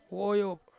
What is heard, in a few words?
"woyop" sunrise, Ansus